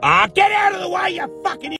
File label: old man yells at cloud; spongebob